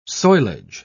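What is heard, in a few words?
"soilage", English, British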